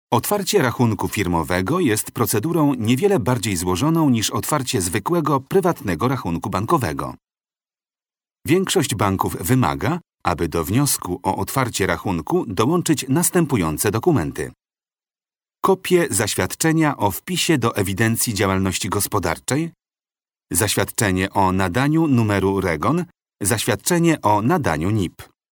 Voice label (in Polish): M E LEARNING